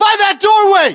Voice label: US landmark near ext doorway, battlechatter, english